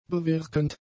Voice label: "bewirkend", German; adjectives